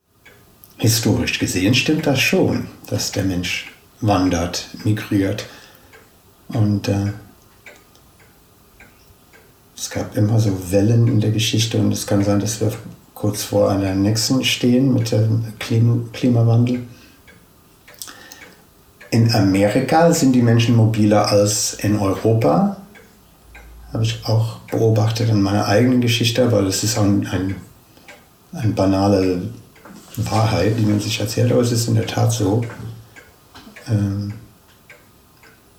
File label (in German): us englisch